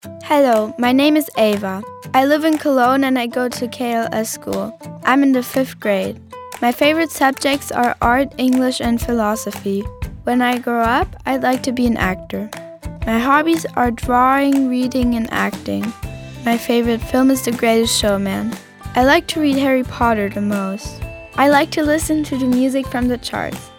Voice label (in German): Selbstvorstellung EN